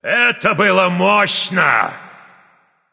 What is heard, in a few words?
kill streak, russian; caster